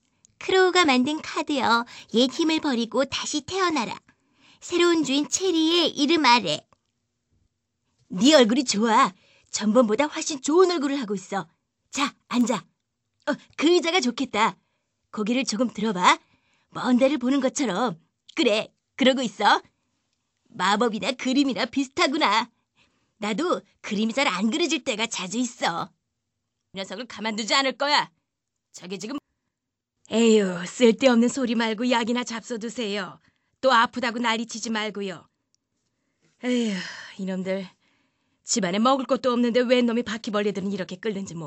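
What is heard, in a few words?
Korean female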